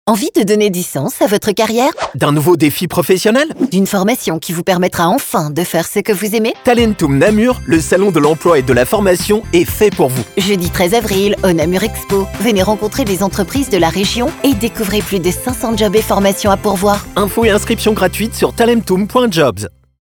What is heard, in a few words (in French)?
spot; talentum; Studio Com'un Son